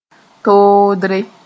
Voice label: "toodre", Mooré

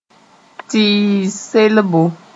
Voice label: "tɩɩs selbo", moore

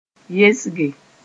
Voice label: "yesge", Mooré

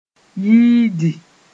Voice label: "yiidi", Mooré

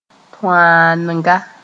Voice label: "tõaanega", Mooré; torn

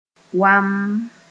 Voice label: "wamm", Mooré

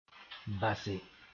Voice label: "base", Mooré; leave

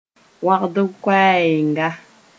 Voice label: "wãgd-koɛɛga", Mooré; short vowel

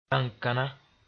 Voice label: "bãnkãna", Mooré

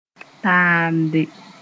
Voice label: "taamde", moore; begin

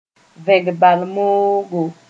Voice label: "vẽg bal moogo", Mooré

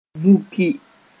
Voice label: "vũki", moore

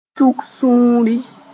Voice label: "tuk sũuri", moore

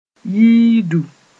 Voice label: "yiidu", Mooré

